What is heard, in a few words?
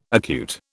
"acute", British